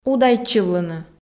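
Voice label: "удайтчывлыны", Komi, female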